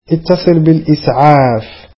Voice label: Call the ambulance, arabic